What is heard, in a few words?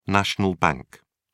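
"national bank", British English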